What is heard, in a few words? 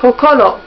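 "kokoro", Japanese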